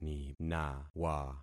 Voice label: "ninawa", concat syllables; Affixing experiment

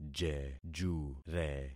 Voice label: "jejure", concat syllables; Affixing experiment

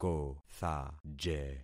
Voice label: "kothaje", concat syllables; Affixing experiment

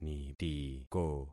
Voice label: "nitiko", concat syllables; Affixing experiment

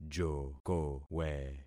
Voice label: "jokowe", concat syllables; Affixing experiment

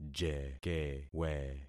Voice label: "jekewe", concat syllables; Affixing experiment

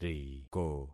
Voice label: "riko", concat syllables; Affixing experiment

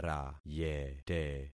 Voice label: "rayete", concat syllables; Affixing experiment

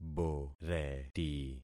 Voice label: "boreti", concat syllables; Affixing experiment

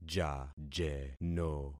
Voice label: "jajeno", concat syllables; Affixing experiment

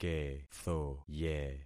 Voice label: "kethoye", concat syllables; Affixing experiment

